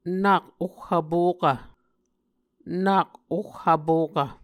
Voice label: "nak oh vpokv", Muscogee